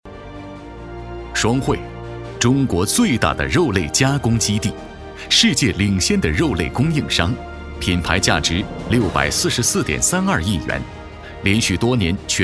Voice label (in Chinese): 宣传片 企业 稳重, 男; 双汇